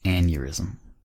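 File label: "aneurysm", American English; pronunciations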